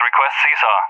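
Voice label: "Request CSAR", English speech; Allied Flight; DCS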